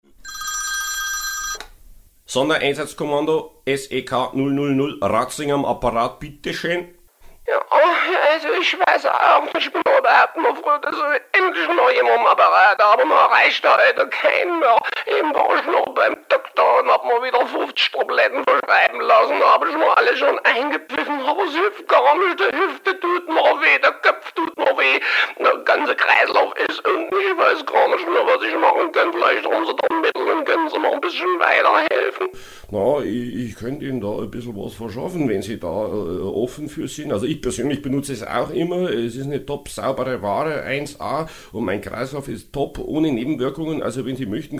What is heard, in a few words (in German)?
Bayer Saechsin Telefon impro